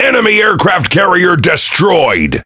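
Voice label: Pirate Speech Destroy Enemy Aircarrier, English pirate; World Of Warships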